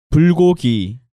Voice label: "Bulgogi", Korean